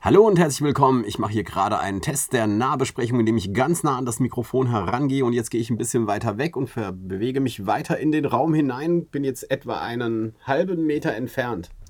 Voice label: Yamaha AG01 Nahbesprechung

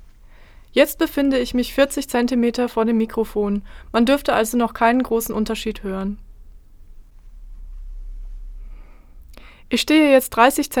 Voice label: nahbesprechung pegelkompensiert; warm audio wa-47jr test

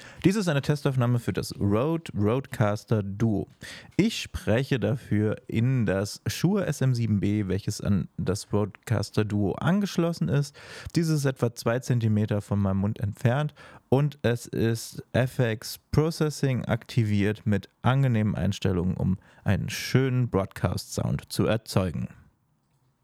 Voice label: testaufnahme rode rodecaster duo

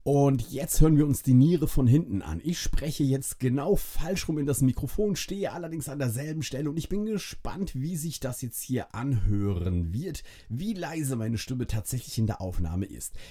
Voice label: blue spark sl back 180 grad

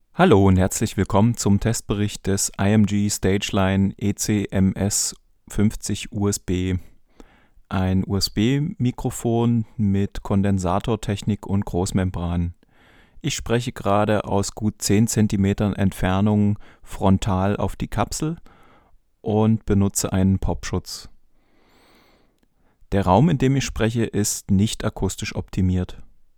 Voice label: img stageline ecms-50usb sprache; img stageline ecms-50usb test

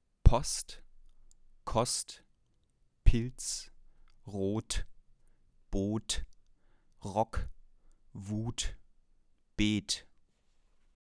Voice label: mit popschutz; rode nt-usb

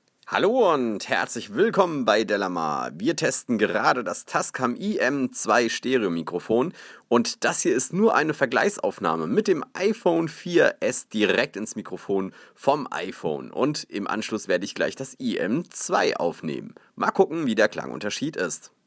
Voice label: Tascam iM2 Sprache iPhone

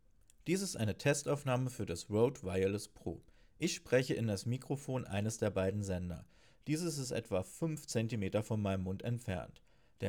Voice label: internes mikrofon; rode wireless pro

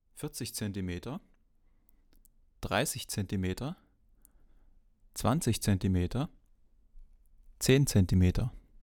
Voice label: mojave ma-201fet stimme nahbesprechungseffekt

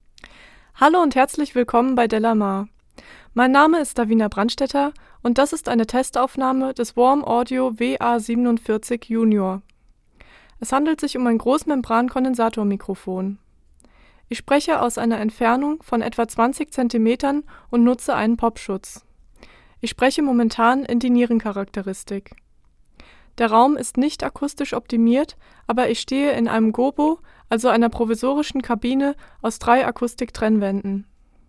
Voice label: sprache f; warm audio wa-47jr test

